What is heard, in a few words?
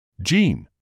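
"gene", British English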